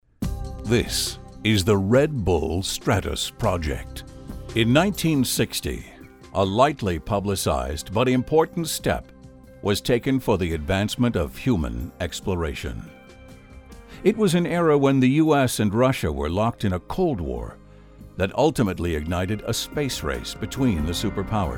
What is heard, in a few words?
men narration; Characters, comical, announcer.